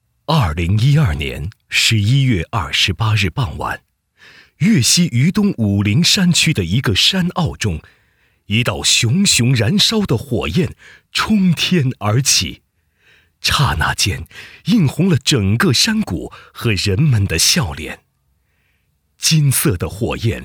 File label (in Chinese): 男 纪实; 涪陵页岩气田建成50亿方产能纪略